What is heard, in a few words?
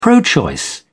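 "pro-choice", British English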